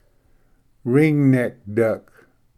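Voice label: "ring necked duck", English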